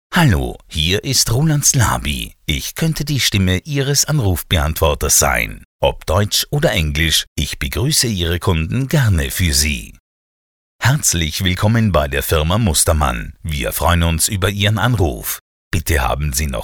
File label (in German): Sprecher Telefonansagen